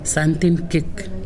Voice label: "fingernail", Pingelapese